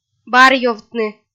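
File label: "барьёвтны", Komi, female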